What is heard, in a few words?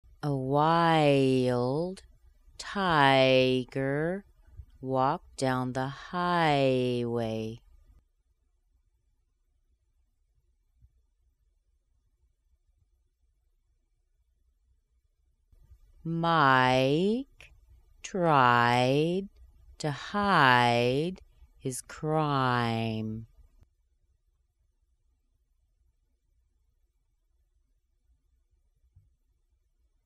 ay sentences slow, American accent